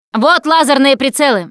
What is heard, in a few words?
laser sights, russian, Survivor Voice Producer